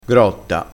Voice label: "grotta", crespinese, vocabolario dialettale

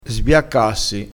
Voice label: "sbiaccassi", dialettale

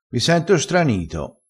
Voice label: "stranito", vocabolario dialettale

